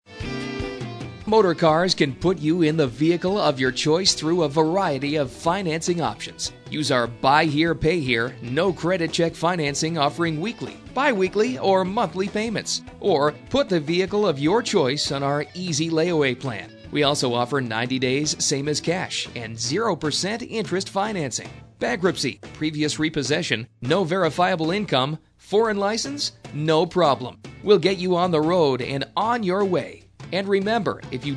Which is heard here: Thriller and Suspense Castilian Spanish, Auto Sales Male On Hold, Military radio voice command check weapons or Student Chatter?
Auto Sales Male On Hold